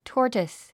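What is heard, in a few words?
"tortoise", English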